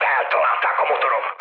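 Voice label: Hungarian damaged engine mood low, speech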